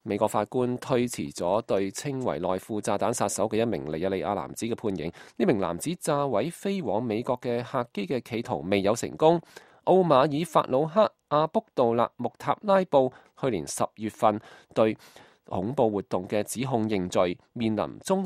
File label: news; chinese; US Nigerian Bomber Sat; 美國法官推遲對內褲炸彈人的判刑